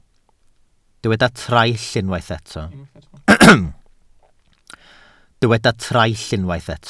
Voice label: "traill", Welsh